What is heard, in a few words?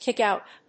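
"kick out", English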